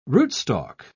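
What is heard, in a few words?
"rootstalk", British English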